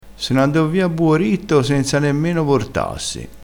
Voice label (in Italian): "a buo ritto", Crespinese